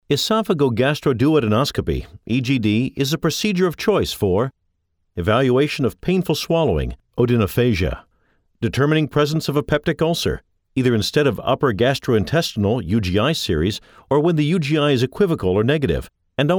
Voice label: Medical Narration